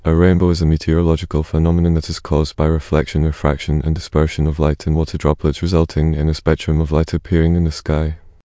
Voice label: speaker, en GB; piper voices; vctk